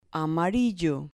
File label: "amarillo", Spanish